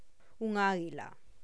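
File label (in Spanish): "un águila", Spanish; fonema g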